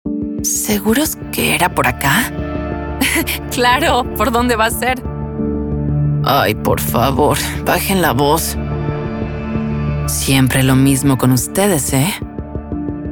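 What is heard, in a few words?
Versatile Characters Latin American; Versatilidad Personajes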